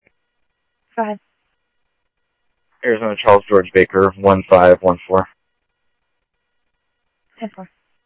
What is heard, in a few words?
Mesa Simulcast, MESA PD, scanner audio